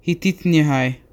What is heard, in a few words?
what are you doing, serrano